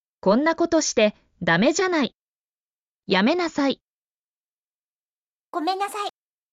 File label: Emotion Level: Very angry, commanding immediate cessation; 「こんなことして！ダメじゃない！！やめなさい！！（konnakotoshite！damejanai！！yamenasai！！）」「ごめんなさい（gomennasai）」